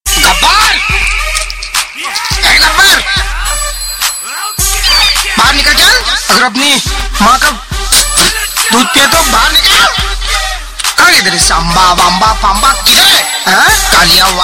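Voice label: Funny Remix Dialogues Hindi Comedy Ringtone; Gabbar Singh; Remix Dialouges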